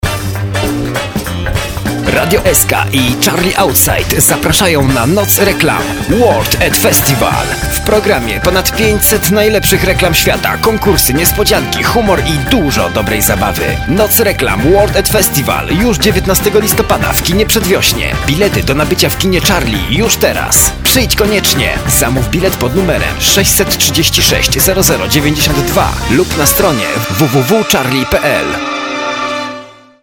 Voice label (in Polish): reklama; nocreklam